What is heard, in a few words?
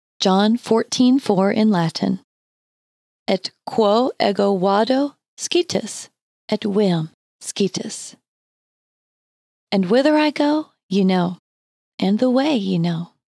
John 14:4 in Latin slowly